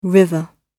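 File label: "river", British English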